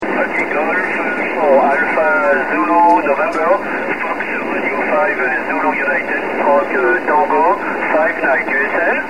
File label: 28 ssb